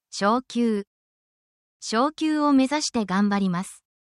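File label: Japanese word, essential Japanese phrases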